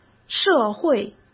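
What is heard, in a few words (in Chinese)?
"社会", Mandarin Chinese; hsk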